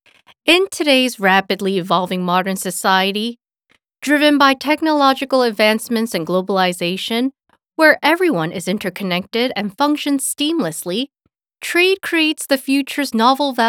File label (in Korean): 영어 기본톤